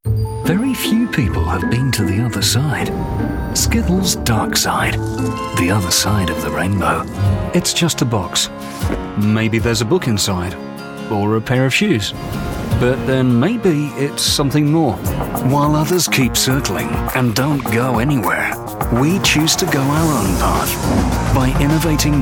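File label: male, UK English, commercial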